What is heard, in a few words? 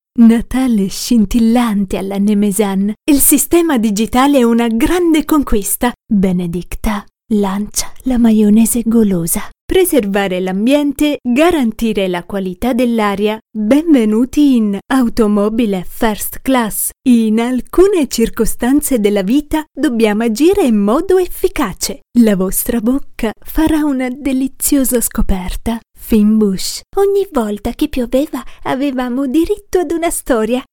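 Italian, female, commercial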